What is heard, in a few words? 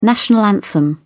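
"national anthem", British English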